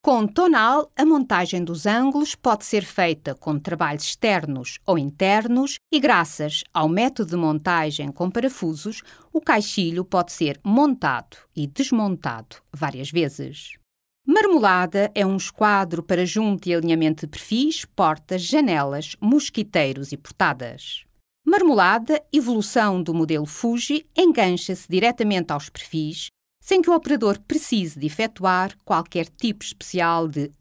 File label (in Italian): portoghese